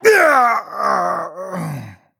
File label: Male Night Elf Death